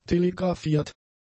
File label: "telegraphiert", German; adjectives